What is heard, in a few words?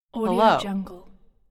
automated female voice says hello